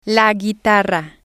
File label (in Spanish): "la guitarra", Spanish